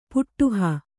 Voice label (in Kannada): "ಪುಡೆಹ", Kannada